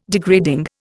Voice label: "degrading", Indian